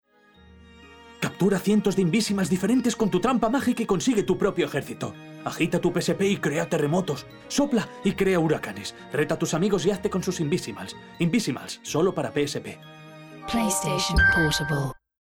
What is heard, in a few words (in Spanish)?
anuncio; Invizimals